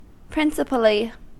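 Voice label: "principally", US English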